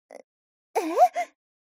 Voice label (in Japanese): mob 女子 台詞